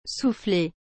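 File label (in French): "soufflé", French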